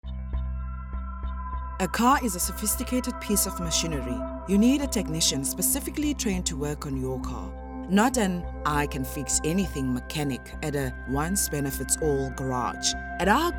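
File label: english South African female commercial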